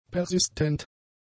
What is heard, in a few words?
"persistent", German; adjectives